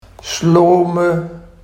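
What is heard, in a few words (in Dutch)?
"slome", Barghs dialect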